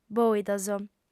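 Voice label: "bòidos", female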